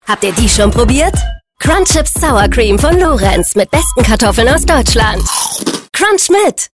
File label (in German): Werbung, Commercial (Werbung), German; Crunchips